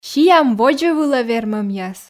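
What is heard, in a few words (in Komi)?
сиам водзӧ вылӧ вермӧмъяс, Komi, female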